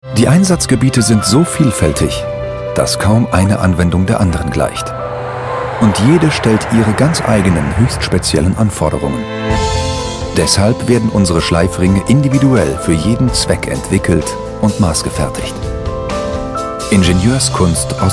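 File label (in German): Erklärfilm Schleifringe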